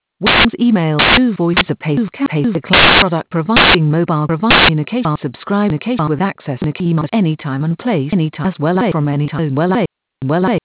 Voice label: Email 2 Voice EN